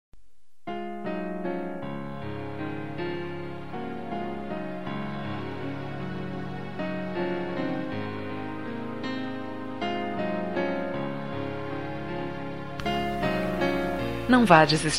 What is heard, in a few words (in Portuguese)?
Amizade Com Otimismo, F